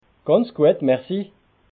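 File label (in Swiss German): "gantz guet", Alsatian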